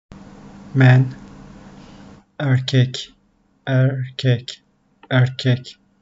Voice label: "men", turkish; the talking turkish phrasebook